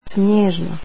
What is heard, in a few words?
snowy, croatian